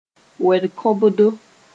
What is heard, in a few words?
"wed-kõbdo", moore